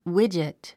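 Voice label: "widget", English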